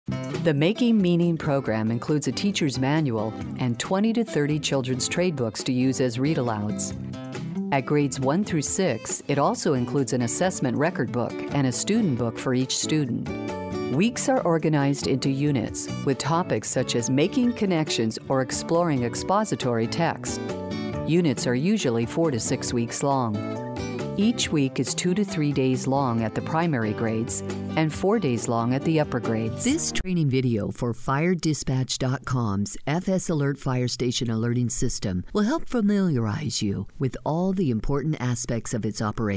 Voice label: Explainer Female, Narration, Whiteboard/Explainer

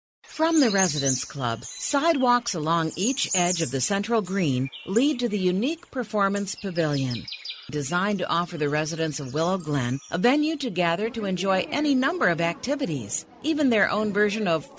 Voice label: Narration Female

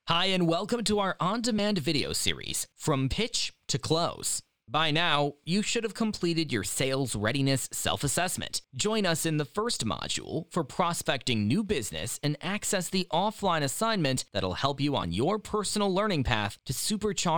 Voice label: eLearning Male Millenneal

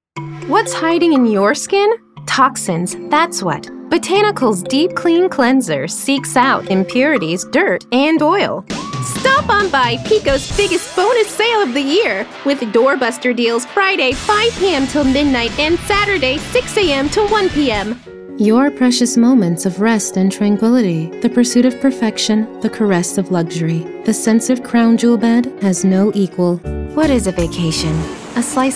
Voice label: Commercial Female

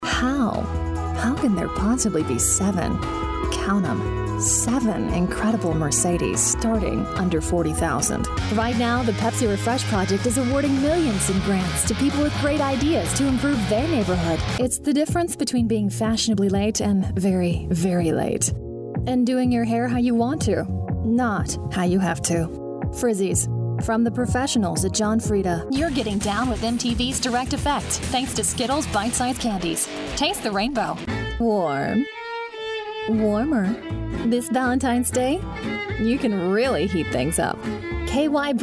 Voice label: Commercial Female, Caring, Genuine, Sunny; TV and Radio, eLearning, Narration; Commercial Voiceover

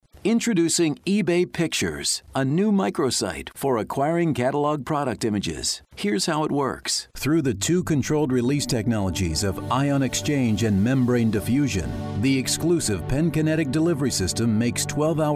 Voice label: Narration Male

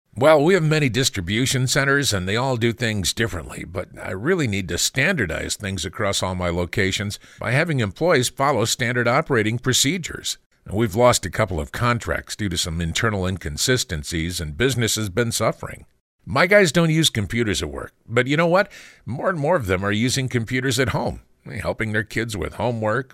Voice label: eLearning Male, Narration, eLearning

